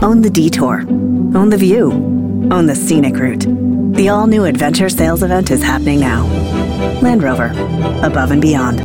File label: US English; Land Rover